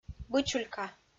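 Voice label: "бычулька", Komi, female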